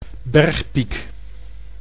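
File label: "bergpiek", Dutch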